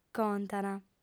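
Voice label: "contant", female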